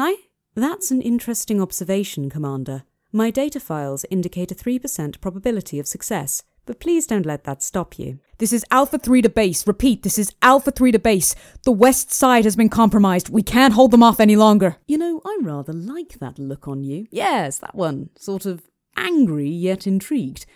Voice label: Character